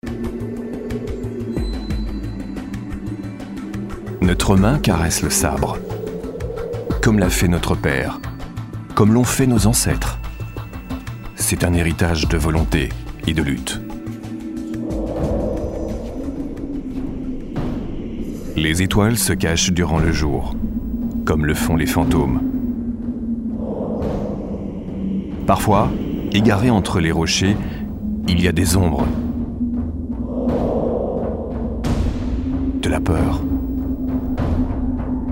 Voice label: CORPORATE; TASSILI